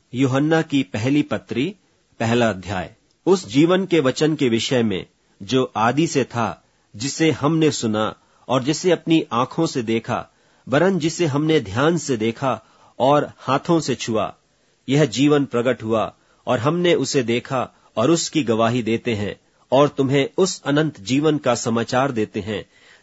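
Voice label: 1 john, Hindi bible reading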